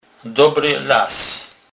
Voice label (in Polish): "dobry las", kurpiow